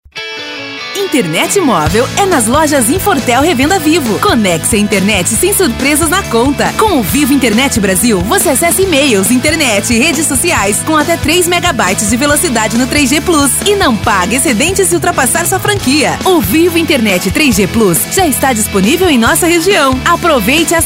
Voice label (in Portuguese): locutora, Pacotão Empresárial